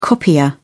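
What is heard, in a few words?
"copier", British English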